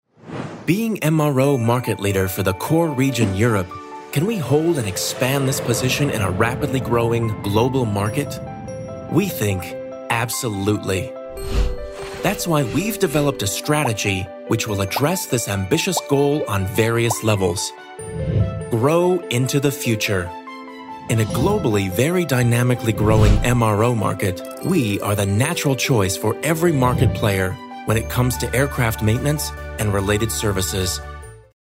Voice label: Image Film; Lufthansa